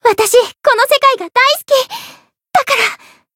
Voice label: Voice chant, jp; nona